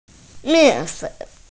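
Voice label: "flour", Mandarin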